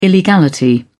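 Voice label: "illegality", British English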